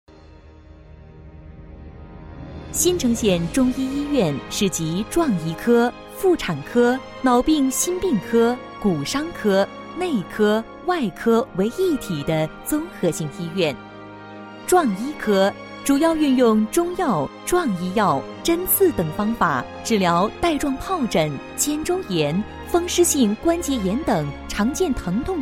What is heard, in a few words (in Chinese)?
女 专题, 国语女声配音 多风格录音; 医院简介